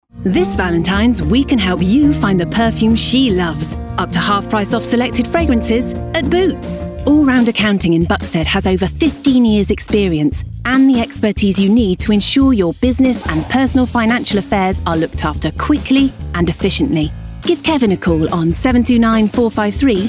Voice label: TVR commercial